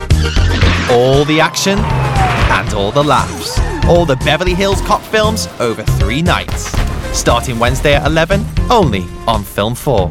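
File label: English Nothern male Commercial; voice over; accents of england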